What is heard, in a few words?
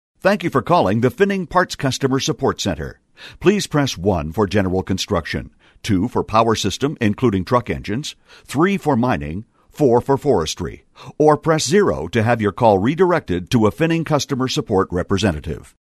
Voice Prompt